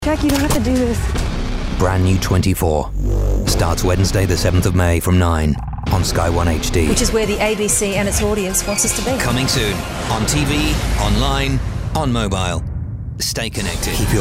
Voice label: TV Promos

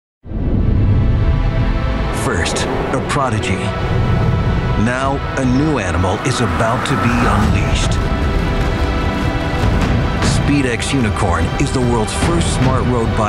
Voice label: Trailer

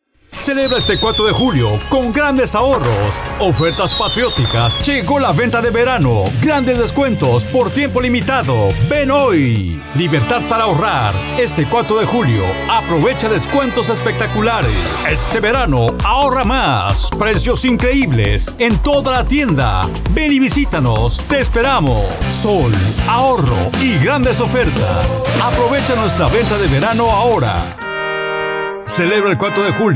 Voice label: Male

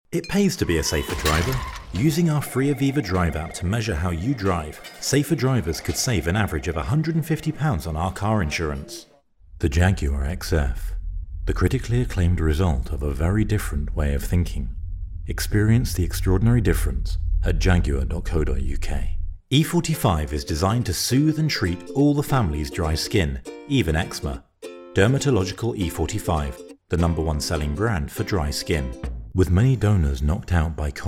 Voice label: Commercial SFX

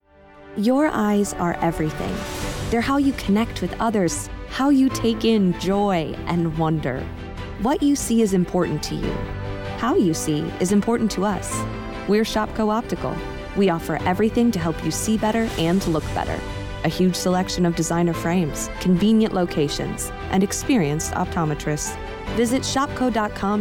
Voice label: Radio; Shopko Optical Eyes Brand

